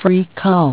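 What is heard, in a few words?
free calls, English; asterisk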